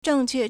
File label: "zhèngquè", Chinese; correct; proper; 正确 (正確)